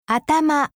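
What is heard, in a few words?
"atama", Japanese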